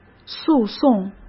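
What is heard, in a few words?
"诉讼", Mandarin Chinese; hsk; chinese-flashcards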